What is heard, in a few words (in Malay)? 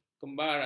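"kembara", Malay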